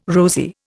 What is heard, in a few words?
"rosy", Indian